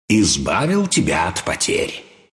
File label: deny, ru; dota2